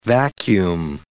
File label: "vacuum", English